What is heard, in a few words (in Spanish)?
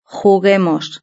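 "juguemos", Spanish; verb-conjugator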